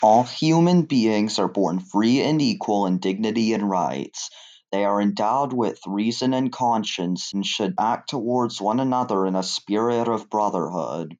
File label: English Canadian